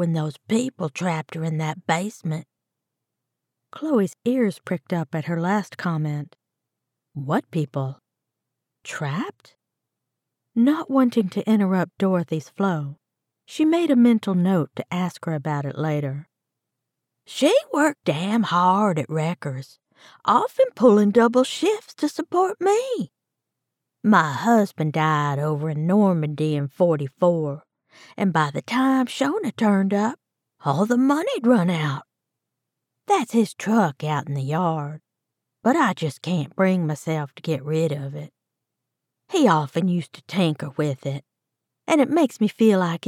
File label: Third Person Drama Elderly Female Southern Accent